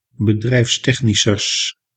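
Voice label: "bedrijfstechnischers", Dutch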